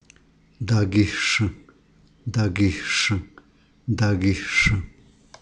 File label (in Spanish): mi red, Zapoteco San Pablo Villa de Mitla; posesivos; Vocabulary; sta maria albarradas